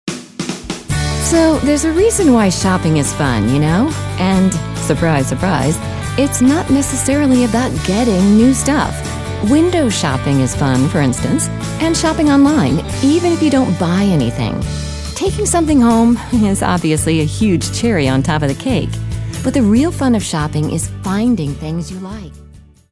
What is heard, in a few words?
real young